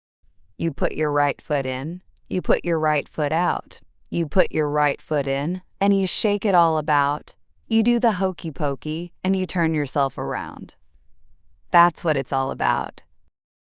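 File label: female US, classic rhymes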